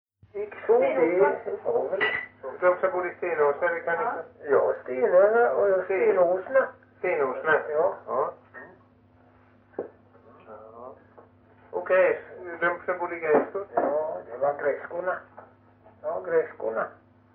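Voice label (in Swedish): Hulterstad Öland, dialekter; Folkminnen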